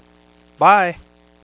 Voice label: "Bye" Male